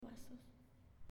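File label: "Vasos", Spanish, female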